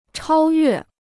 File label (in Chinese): "超越", Chinese